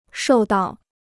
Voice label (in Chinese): "受到", Chinese